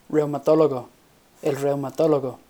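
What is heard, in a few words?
"reumatologo", Spanish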